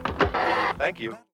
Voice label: thank you radio